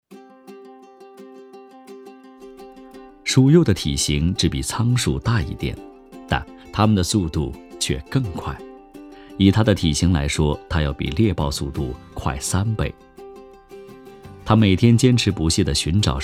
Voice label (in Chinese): 纪录 动物 鼠幼 轻松欢快